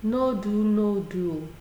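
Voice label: "nodunodu", Nigerian Pidgin, female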